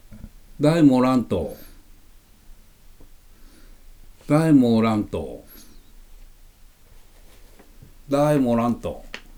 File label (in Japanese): 出水方言; [ダイ]モ [オラン]ト=F% 「誰もいないの？」; wav形式のPCM音声です。録音は、T氏(1931年4月生まれ)にお願いしました。